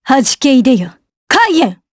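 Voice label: Vox Skill, voices, Japanese; Isolet; kingsraid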